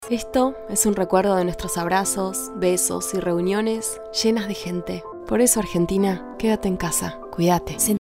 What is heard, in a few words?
Natural warm caring; Renner